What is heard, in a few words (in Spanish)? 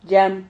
"llam", Silabas